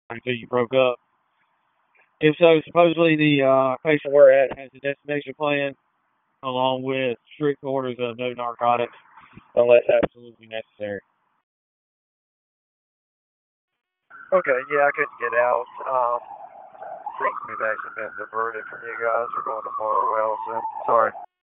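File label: Public Safety Tactical, p25; wake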